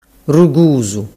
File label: "rugusu", dialettu